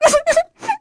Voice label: vox Sad; Sonia; kingsraid

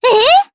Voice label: Vox, jp; Estelle; kingsraid

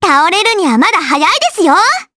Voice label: Vox Skill jp; Estelle; kingsraid

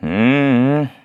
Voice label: Deny, kr; voices; heroes; Kibera; kingsraid

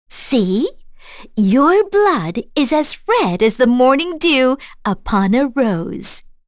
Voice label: vox, en; kingsraid

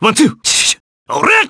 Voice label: Vox Skill jp; kingsraid

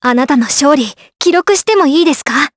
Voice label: Vox Skill jp; Leo; kingsraid; voices heroes jp

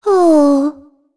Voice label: Shea Vox Deny, voices heroes en; kingsraid-audio

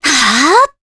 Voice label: Vox Casting, jp; Cassandra; kingsraid

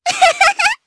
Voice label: Happy, jp; voices; heroes; Hanus; kings raid

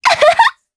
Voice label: Vox Attack jp; voices heroes jp; Erze; kingsraid

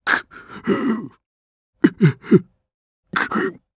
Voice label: Vox Sad, jp; Bernheim; kingsraid

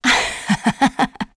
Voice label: Happy; Shamilla; kings raid; en

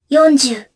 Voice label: Vox Casting jp; Kara; kingsraid

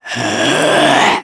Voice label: Vox Casting, jp; Ezekiel; kingsraid

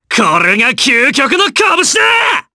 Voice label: Vox Skill jp; kingsraid

